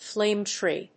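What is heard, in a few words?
"flame tree", English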